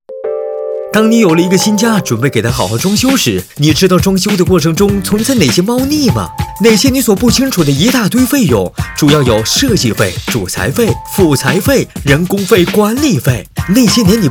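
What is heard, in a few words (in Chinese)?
男年轻浑厚; 国语男声配音; 装修叫你如何识别真假; 飞碟说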